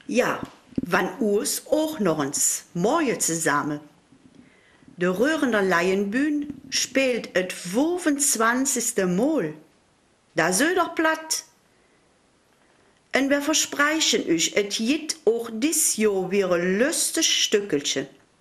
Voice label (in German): Raerener Mundart; Karneval und Raerener Laienbühne